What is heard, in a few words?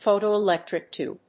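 "Photoelectric Tube", English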